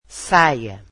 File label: portuguese skirt